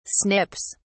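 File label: "snips", British English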